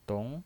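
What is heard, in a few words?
"dong" Mandarin Chinese, second tone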